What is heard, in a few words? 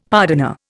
"pardoner", Indian; Dictionary